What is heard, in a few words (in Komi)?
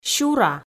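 "сюра", Komi, female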